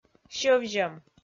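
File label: "сьӧвзьӧм", Komi, female; kpv audio dict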